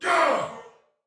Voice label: Block Soldier Male, Battle; Dialog; Barks; Sinecure

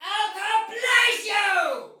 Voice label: Attack Heavy Soldier Female, Battle; Dialog; Barks; en; Sinecure

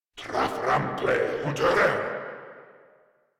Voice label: Attack, Grineer Male

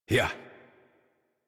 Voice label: Horse Start Adult; Warframe; Duviri

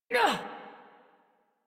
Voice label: Adult Operator Jump, en; Warframe; New War

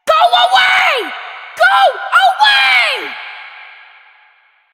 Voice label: Child; Villagers; en; Ostron; Warframe; Cetus Invasion

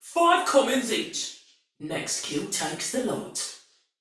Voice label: Patrol Spoken Female; Dialog; Barks; Battle; Sinecure

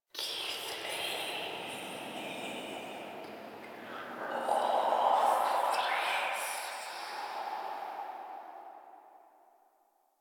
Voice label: Whispers; Inf Hub Lab Many Lights; Warframe; Ambience; Entrati

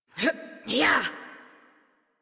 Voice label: Horse Sprint Adult; Warframe; Duviri

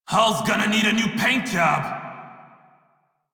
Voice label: Crew Ship Low Male en; Dialog; Warframe; Railjack Crew; Red Veil

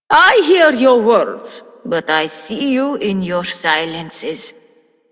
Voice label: Female Generic, Female, en; Dialog; Warframe; Solaris Venus

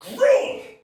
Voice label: Attack, Soldier Male; Sinecure

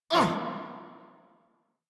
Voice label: Pain; Warframe; Vem Tabook; en

